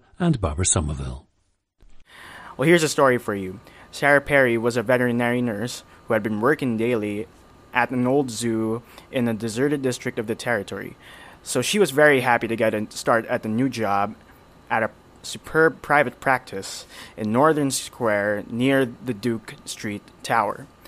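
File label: philippines, dialects of English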